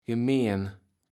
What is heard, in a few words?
"gemeen", Kaevels Platt